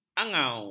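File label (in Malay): "angau", Malay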